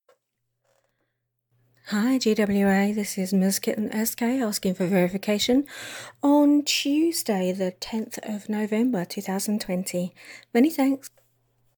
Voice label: F4A Verification